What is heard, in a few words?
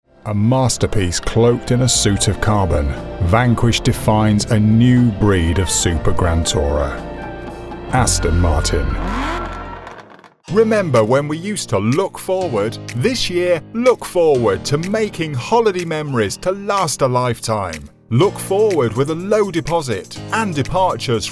British Voiceover